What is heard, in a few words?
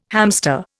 "hamster", Indian